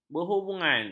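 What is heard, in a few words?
"berhubungan", Malay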